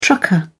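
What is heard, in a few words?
"trucker", British English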